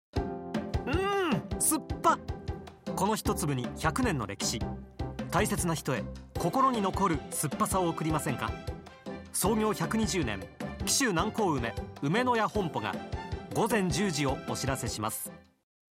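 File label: cm male, ラジオ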